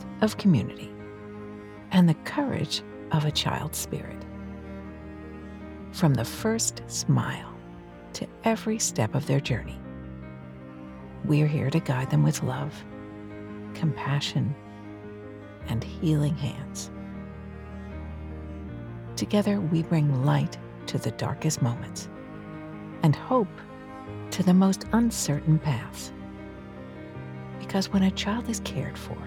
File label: hospital Ad; Children's hospital